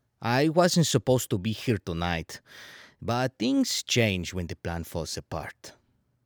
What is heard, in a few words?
Mexican Character